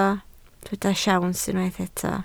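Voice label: "siawns" Welsh, spoken word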